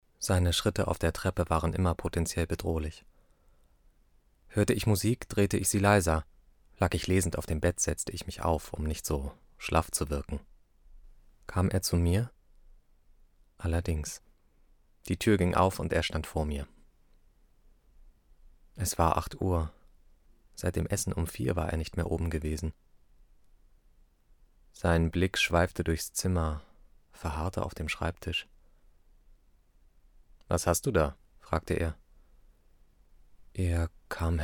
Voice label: Hoerbuch Ich Erzaehler bedrueckend; LOFT VOICES

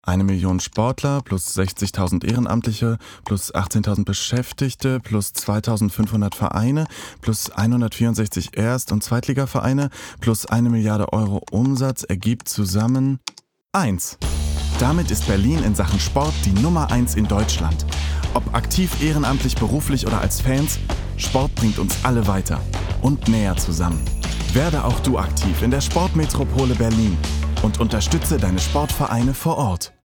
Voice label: Funkspot; Sport Berlin

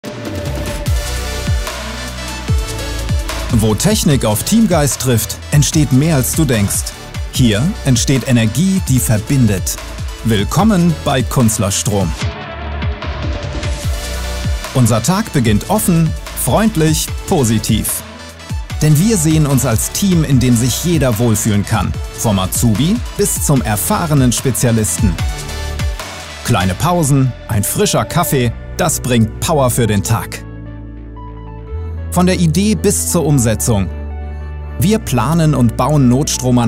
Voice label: Recruitingfilm; KUNZLERSTROM

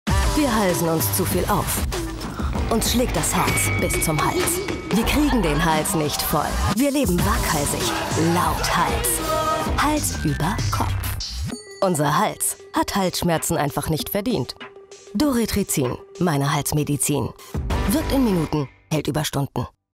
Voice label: TV Spot; Dorithricin

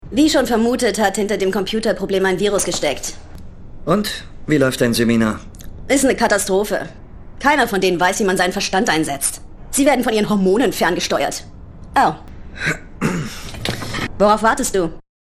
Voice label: synchron anime; perfect insider

